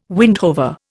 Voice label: "windhover", Indian

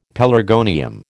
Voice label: "pelargonium", American

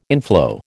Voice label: "inflow", American

